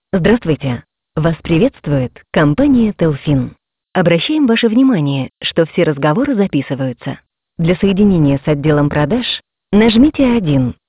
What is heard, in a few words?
woman voice